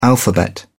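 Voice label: "alphabet", British English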